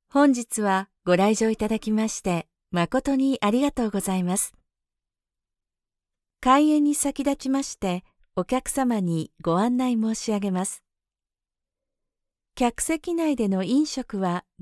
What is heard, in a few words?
stadium announcement